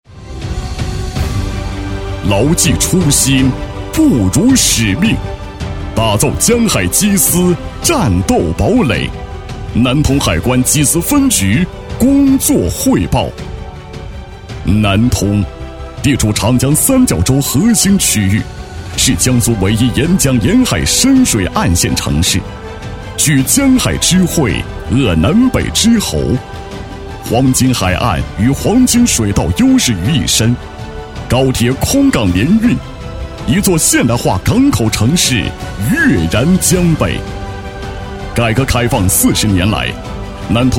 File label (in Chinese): 男 阳光, 厚重大气 大气浑厚; 南通海关缉私分局工作汇报